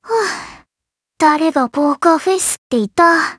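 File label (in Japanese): intro, Japanese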